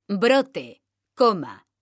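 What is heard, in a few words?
brote coma, Spanish, female; mekanta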